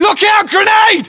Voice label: inform incoming grenade, battlechatter, uk; english; localized english